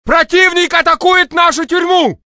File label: Russian; mohaa; dialogue